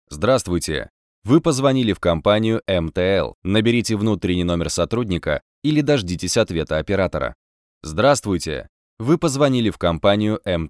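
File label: IVR Russian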